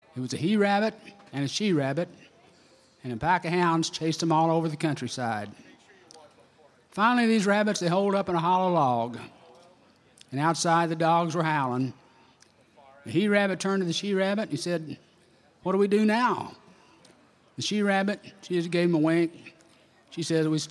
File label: Narration